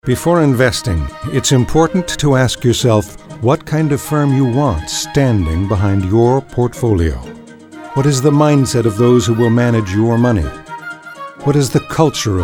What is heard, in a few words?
Narration